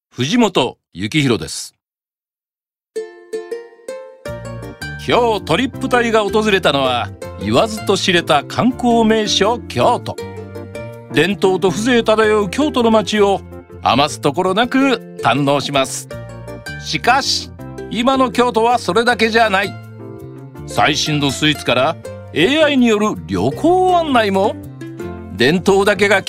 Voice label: ナチュラル

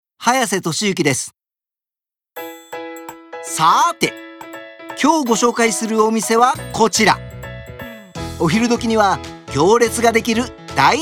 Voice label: バラエティー

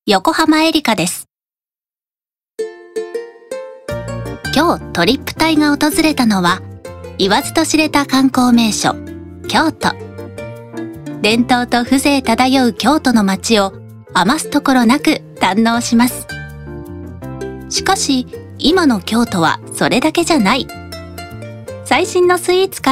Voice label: ナチュラル

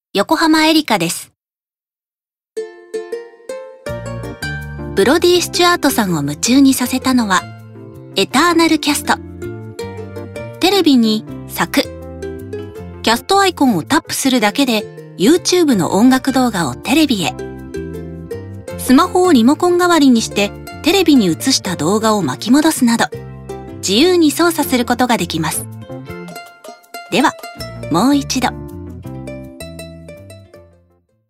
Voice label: ナチュラル

